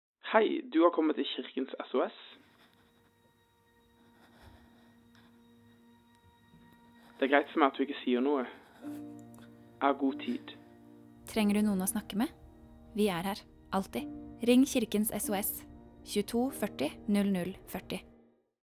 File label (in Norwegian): Radiospot